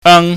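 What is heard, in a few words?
"ang", Cantonese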